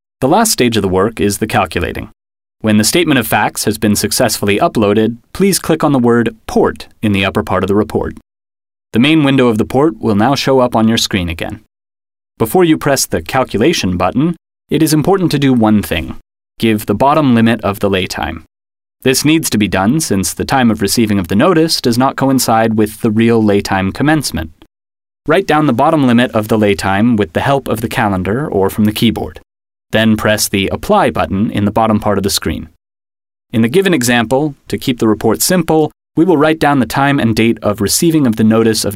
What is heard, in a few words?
Explainer Film